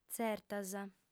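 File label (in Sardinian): "tzertas", female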